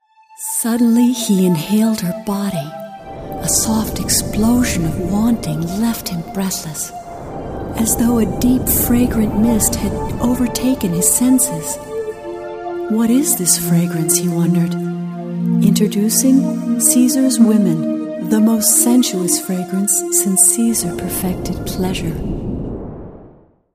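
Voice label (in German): English US Werbung Sanft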